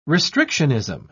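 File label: "restrictionism", English, British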